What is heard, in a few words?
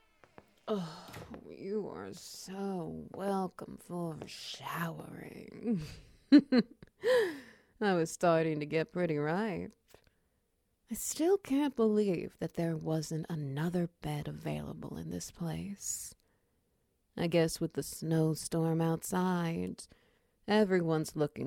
SFX Wet Noises